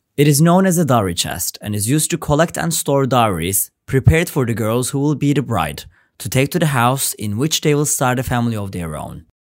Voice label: english